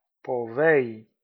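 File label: "paves", Occitan Limousin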